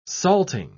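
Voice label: "salting", British English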